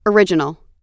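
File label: "original", American English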